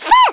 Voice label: "fire", French